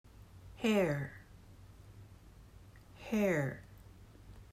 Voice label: "hair", English

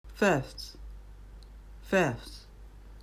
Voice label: "fifth", English

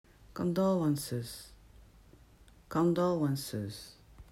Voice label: "condolences", English

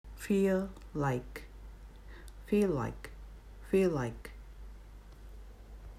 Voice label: "feel like", English

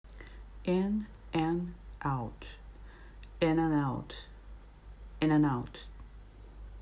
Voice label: "in and out", English

